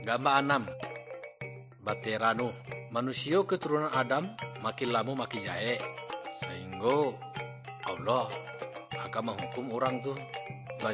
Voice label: good news minangkabau muko muko; kaba ilo

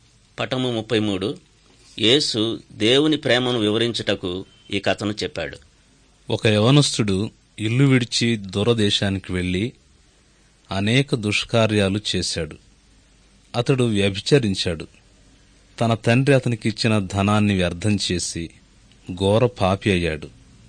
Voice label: good news telugu

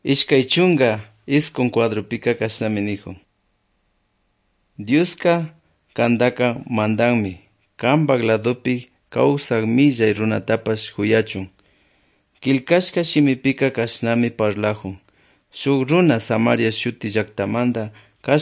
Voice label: good news quichua otovalo

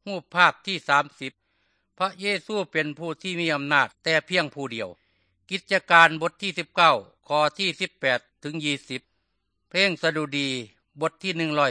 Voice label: good news thai northeastern

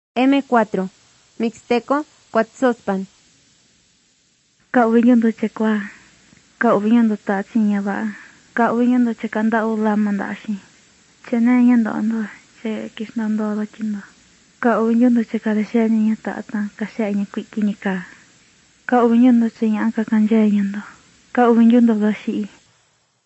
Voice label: mixtec diagnostic spanish mexico

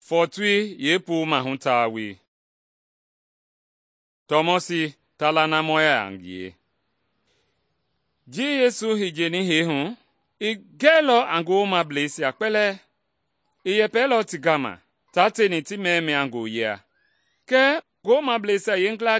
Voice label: mende bsl nt drama; good news mende kpa

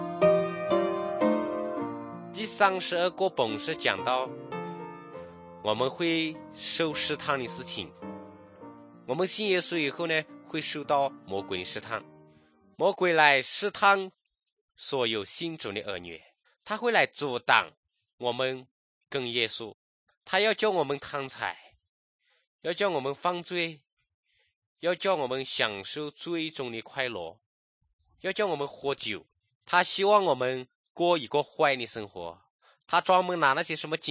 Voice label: good news shan, drama

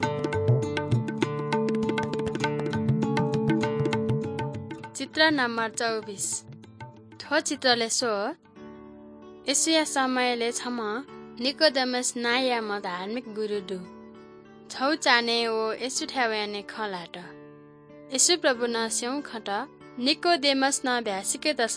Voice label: good news newari balami

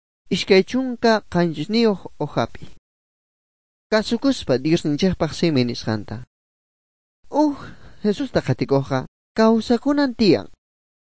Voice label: good news quechua bolivian sucre; bible

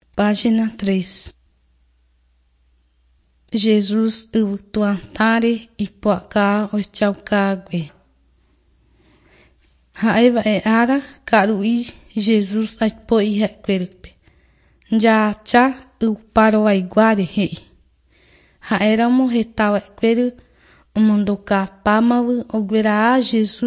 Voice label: mark portions guarani mbya

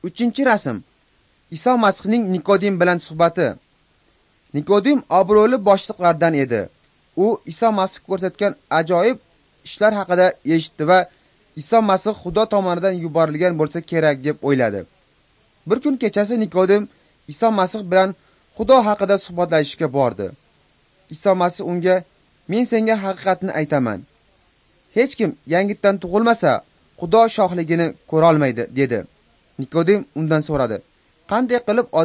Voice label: uzbek northern

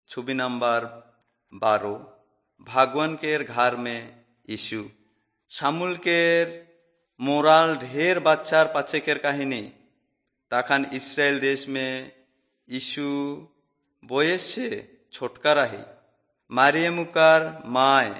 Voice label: servants of god urao, kurukh drama

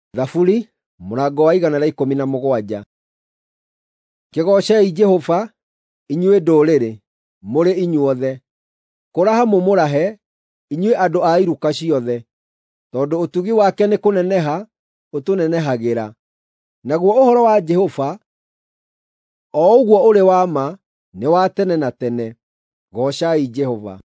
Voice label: psalms, gikuyu drama

